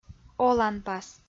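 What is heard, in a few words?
"оланпас", Komi, female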